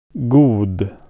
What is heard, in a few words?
"good", swedish